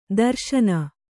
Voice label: "ತ್ರಸ್ನು", kannada